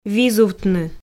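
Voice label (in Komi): "визувтны", Komi, female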